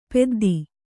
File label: "ಪೆದ್ದಿ", Kannada